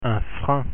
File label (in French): "frein" French; pronunciation